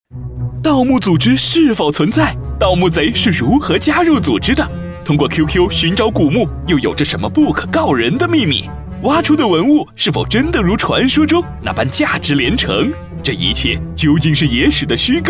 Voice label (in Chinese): 男 搞笑类 视频节目配音, 国语男声配音; 妖孽看鉴